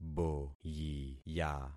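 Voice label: "boyiya", concatenated syllables; Affixing experiment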